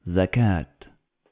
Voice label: "Zakat", Arabic

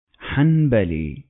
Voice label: "Hanbali", Arabic

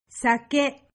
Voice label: "Sake", Japanese

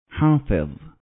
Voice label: "hafiz", Arabic

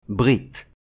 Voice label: "Brit", Hebrew

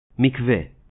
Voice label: "Mikvah", Hebrew

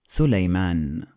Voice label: "Suleyman", Arabic; Islam

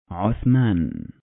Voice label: "Ottoman", Arabic

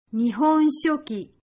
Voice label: "Nihon", Japanese

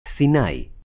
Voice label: "Sinai", Hebrew

